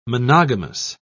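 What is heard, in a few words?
"monogamous", English, British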